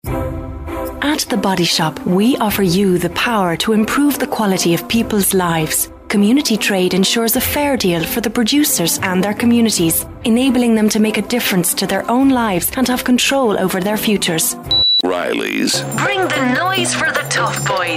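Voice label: Irish, female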